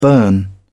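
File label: "burn", British English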